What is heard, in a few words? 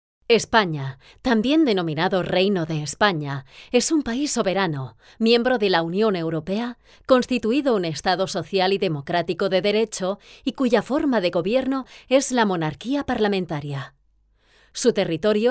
female spanish castilian